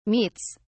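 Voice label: "meats", British English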